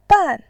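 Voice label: "ban", Mandarin Chinese, tone 4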